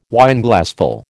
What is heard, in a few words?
"Wineglassful", American